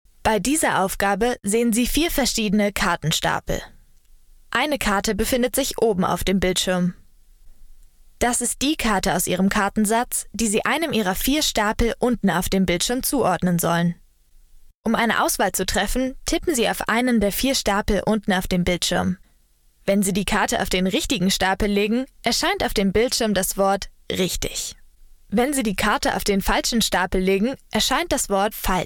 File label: Elearning; LOFT VOICES